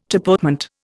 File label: "tapotement", Indian